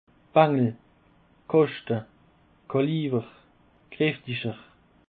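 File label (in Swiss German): "baengel", Alsatian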